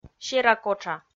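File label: "серакотша", Komi, female; kpv audio dict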